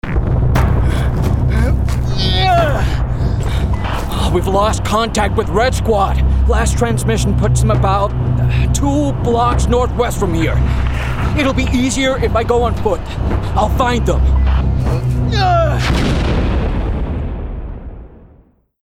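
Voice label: Gaming Soldier US, male; Gaming Character; Soldier American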